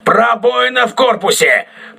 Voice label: voice message hull breach, crew ships russian

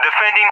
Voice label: "defending", ENG; Messages; Allied Flight; DCS

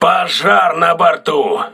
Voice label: voice message fire on board, crew ships russian

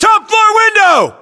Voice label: location top floor window, US

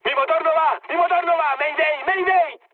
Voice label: damaged engine, mood high, speech; War Thunder

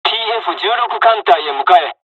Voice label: flight, dialogs japanese; War Thunder

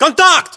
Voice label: threat infantry generic, english

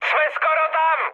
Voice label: near waypoint, speech cze, mood high; War Thunder

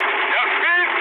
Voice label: aircraft destroyed, mood med, speech ru

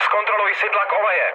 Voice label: damaged oil, speech cze, mood low; War Thunder

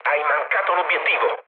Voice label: bomb failure mood low, speech it; War Thunder

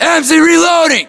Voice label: US inform reloading, battlechatter, english

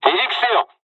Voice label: fly, dialogs japanese; War Thunder

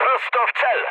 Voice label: bomb success mood med, speech pol; War Thunder

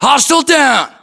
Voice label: inform killfirm infantry, US; localized english; battlechatter

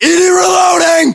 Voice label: inform reloading, US

